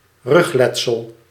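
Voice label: "rugletsel", Dutch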